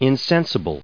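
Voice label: "insensible", US English pronunciation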